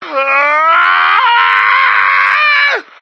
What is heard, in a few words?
Yelling; LARS; Plankerton